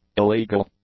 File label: "illegal", English; adjectives